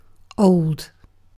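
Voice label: "old", British English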